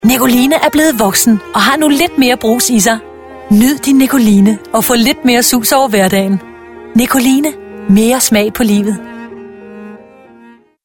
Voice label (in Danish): Reklamedemo